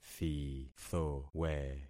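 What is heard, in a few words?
"thithowe", concatenated syllables; Affixing experiment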